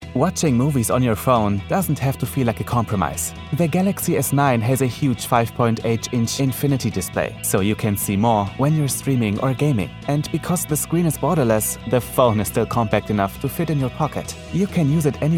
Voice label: english german accent

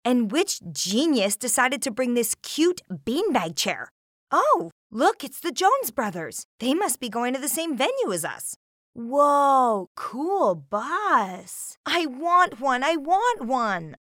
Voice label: Animation Sample Character

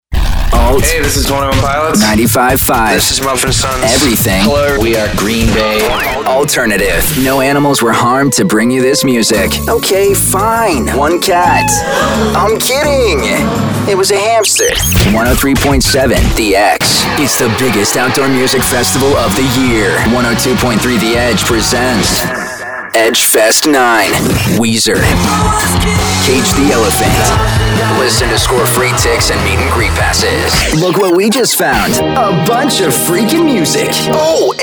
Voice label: Alt Imaging

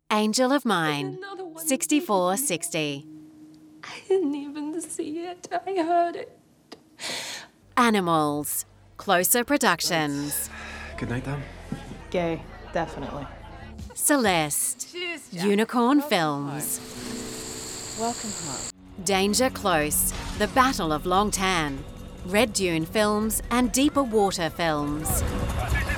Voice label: Announcements

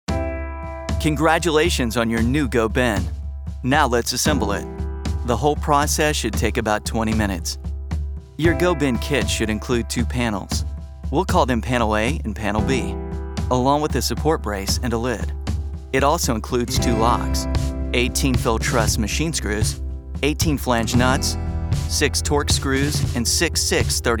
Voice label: Assembly Instruction Video

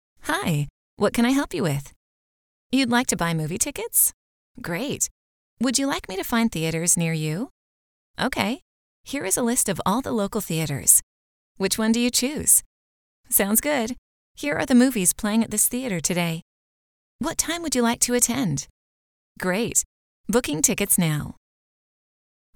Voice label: Voice Assistant

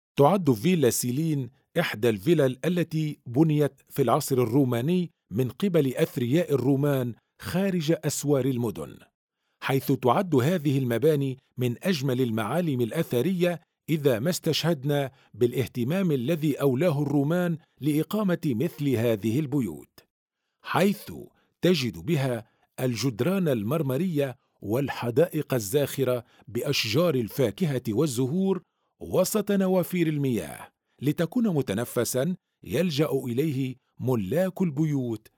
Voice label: Documentary VO

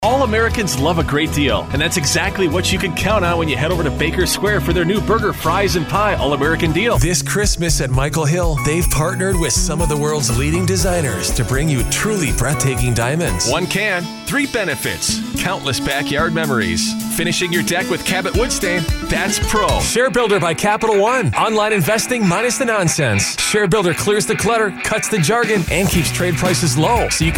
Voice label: TV Commercial

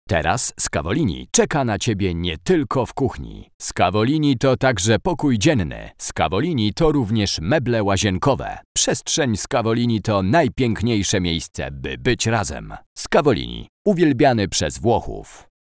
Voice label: hard sell

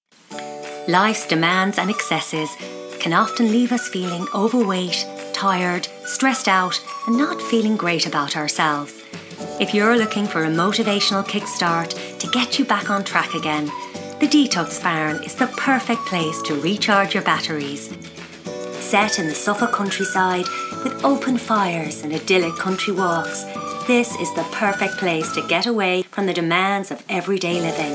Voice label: Irish soft southern

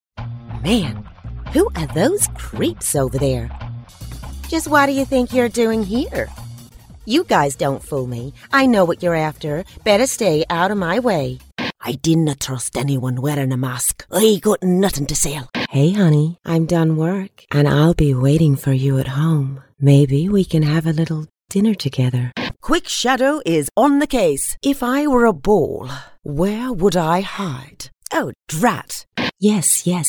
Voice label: Accents

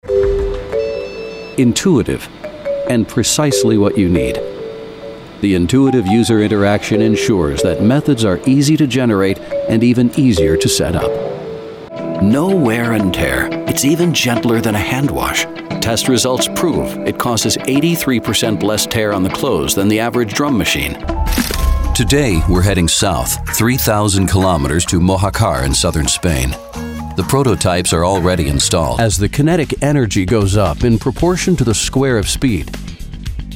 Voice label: Narration Corporate

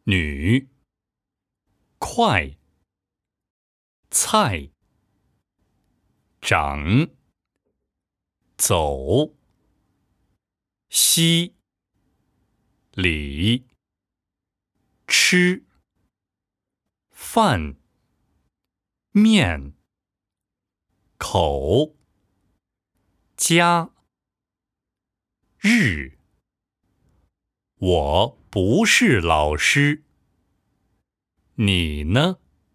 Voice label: Chinese learning

